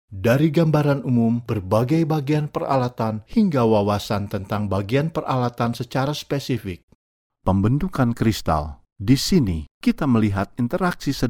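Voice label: Indonesian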